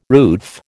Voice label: "roof", American